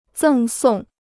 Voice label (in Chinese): "赠送", Chinese